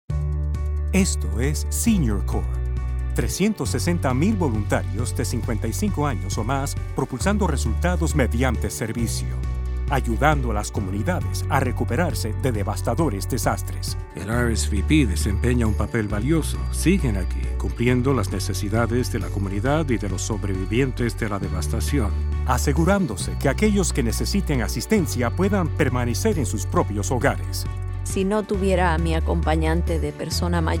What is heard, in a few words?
Spanish; CNCS; Senior Corp